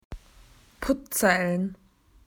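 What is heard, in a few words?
"puzzle", Australian English; PictureDictionary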